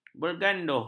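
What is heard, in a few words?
"berganduh", Malay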